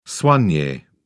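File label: "soigne", British English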